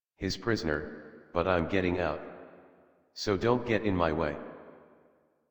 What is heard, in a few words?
Adult, en, Dialog; Theatre; Warframe; Duviri Paradox Quest